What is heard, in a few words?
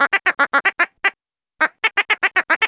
vox droid; pdroid